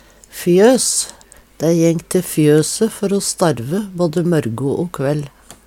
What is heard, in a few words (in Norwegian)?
"fjos", Numedalsmål; Substantiv inkjekjønn